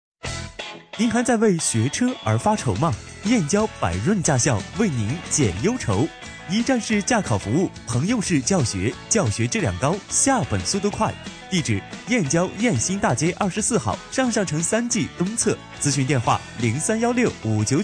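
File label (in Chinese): 男广告 驾校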